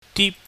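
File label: "dip", Cantonese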